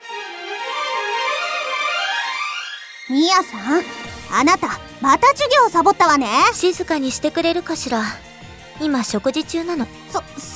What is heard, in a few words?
Voice Drama